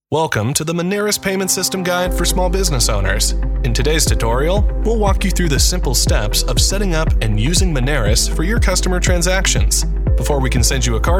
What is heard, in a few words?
Narration